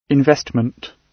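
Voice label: "investment", British English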